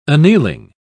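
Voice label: "annealing", British English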